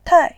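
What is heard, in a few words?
"tai", Mandarin Chinese, fourth tone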